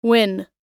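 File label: "win", American English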